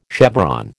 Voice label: "chevron", American